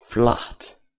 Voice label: "flaht", Proto-Germanic